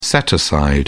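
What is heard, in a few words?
"set-aside", British English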